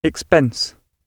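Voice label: "expense", British English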